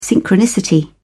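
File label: "synchronicity", English, British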